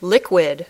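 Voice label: "liquid", English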